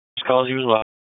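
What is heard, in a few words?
EMS Dispatch; wake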